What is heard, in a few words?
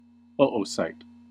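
"oocyte", American English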